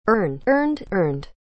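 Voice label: "earn", English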